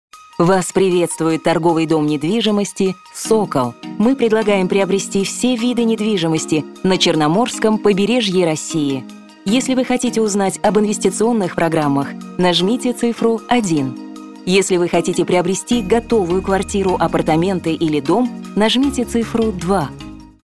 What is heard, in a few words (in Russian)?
Женский уверенный голос для автосекретаря; Голосовое приветствие, автоответчик или меню IVR